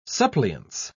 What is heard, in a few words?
"suppliance", en uk